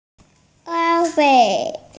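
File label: "an1wei4", Mandarin Chinese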